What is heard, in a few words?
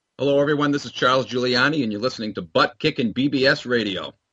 bbs radio promo